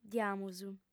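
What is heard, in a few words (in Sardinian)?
"diamus", female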